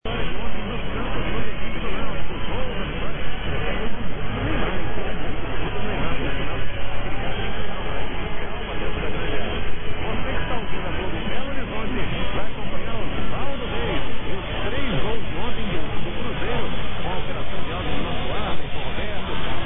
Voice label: Portuguese

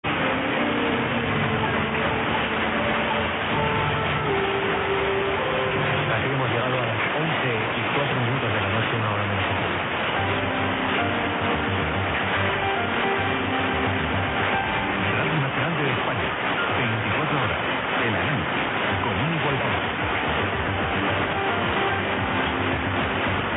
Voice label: 747 RNE5 ID Spain or Canary Islands

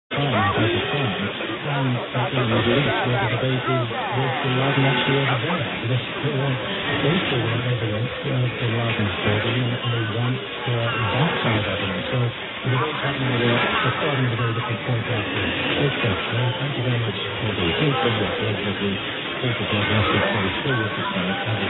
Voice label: 909 BBC Radio 5 Live UK

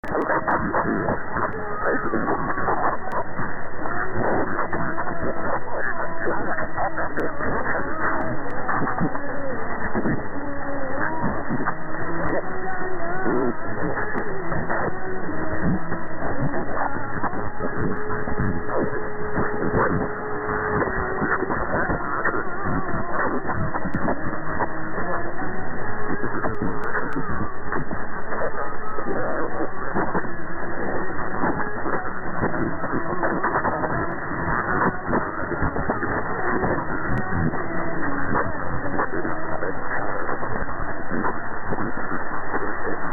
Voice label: 783 Arabic

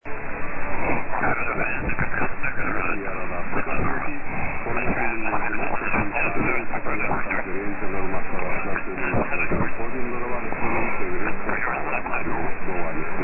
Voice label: 864 Radio Liberty in Turkmen via Armenia

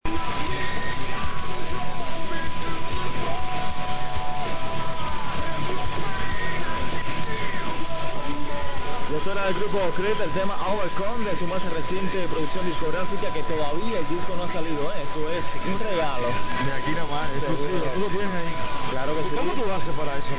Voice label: 820 Spanish; WMGG Largo FL

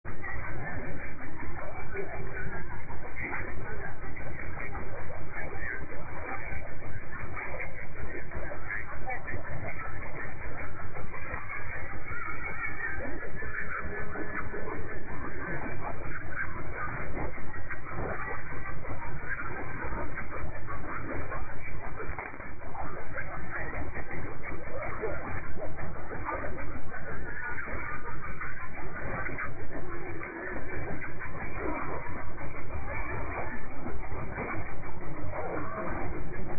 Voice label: 972 Radio Jamahiriyah Libya

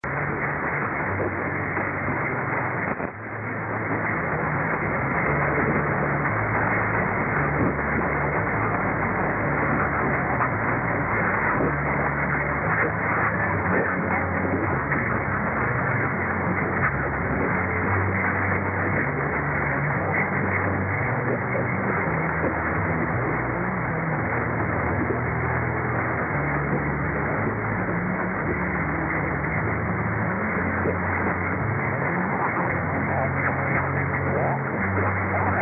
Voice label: 927 Radio Vlaanderen Info Belgium; Wolvertem

